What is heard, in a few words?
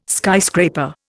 "skyscraper", Indian